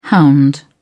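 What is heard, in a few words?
"hound", British English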